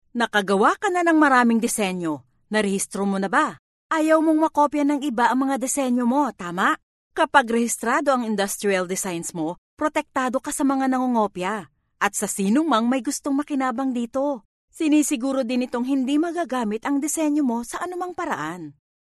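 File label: Tagalog, female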